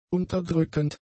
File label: "unterdrückend", German; adjectives